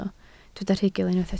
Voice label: "rhugl", Welsh